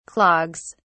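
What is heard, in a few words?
"clogs", British English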